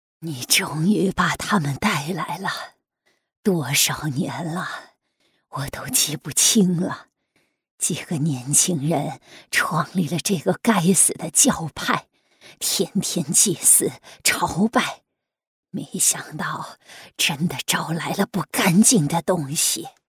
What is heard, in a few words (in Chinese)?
老妇人